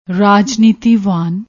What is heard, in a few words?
"raajneetivaan", Punjabi